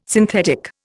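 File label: "synthetic", Indian English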